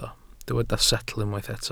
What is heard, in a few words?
"setl", Welsh